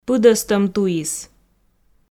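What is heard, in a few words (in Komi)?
"пыдӧстӧм туис", Komi, female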